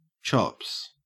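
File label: "chops", English, Australian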